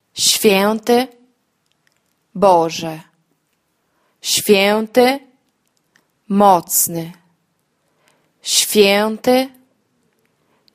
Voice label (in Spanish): deus santo pausado, polaco; divina misericordia